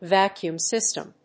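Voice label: "VACUUM SYSTEM", English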